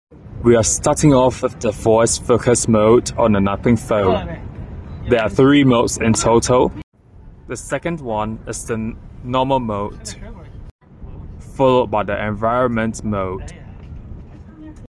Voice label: Nothing Phone 1 Voice Recording